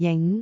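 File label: "jing5", Cantonese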